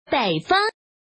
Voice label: woman